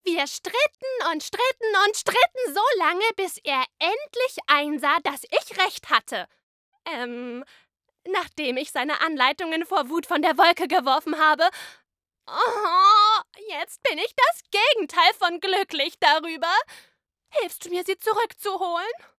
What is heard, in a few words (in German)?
Animation streitsuechtig verzweifelt